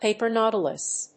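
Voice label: "paper nautilus", English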